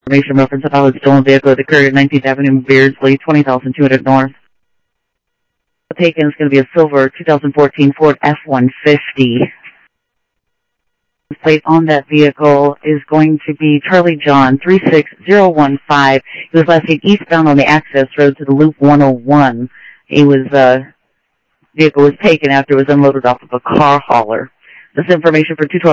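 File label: police department, scanner audio; PHOENIX PD-200 A Phoenix PD; ThompsonPeakHigh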